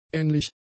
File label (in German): "ähnlich", German; adjectives